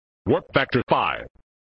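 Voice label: "Warp Factor Five", male voice; Worf; flipper; sttng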